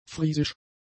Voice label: "friesisch", German; adjectives